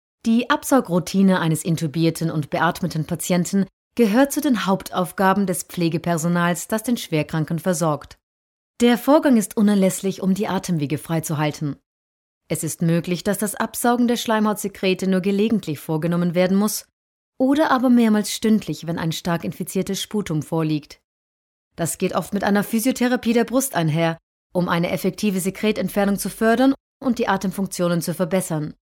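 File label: German Austrian narration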